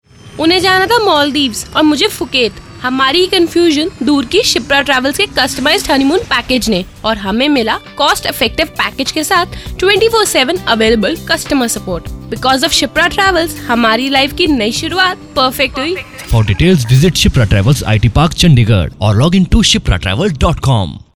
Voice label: Testimonial; Phuket